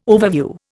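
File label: "overview", Indian English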